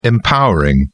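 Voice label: "empowering", British English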